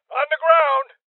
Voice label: Order To Surrender Male Old